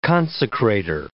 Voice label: "consecrator", English

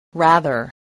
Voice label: "rather", english

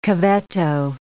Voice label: "cavetto", English

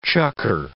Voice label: "chukar", English

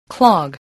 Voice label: "clog", voice

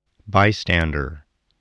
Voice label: "bystander", spelling

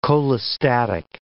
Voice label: "cholestatic", English

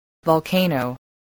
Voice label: "volcano", English

